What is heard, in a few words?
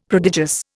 "prodigious", Indian; Dictionary